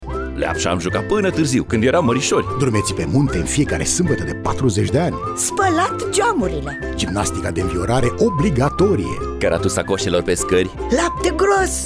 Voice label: spot radio; Sprinten